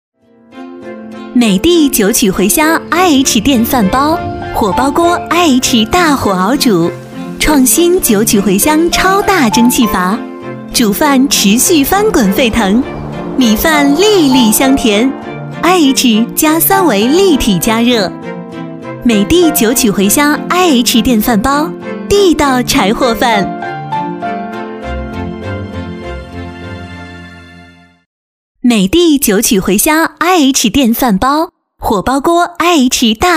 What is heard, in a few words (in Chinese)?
广告 家居家电 IH电饭煲 亲切; 美的九曲回香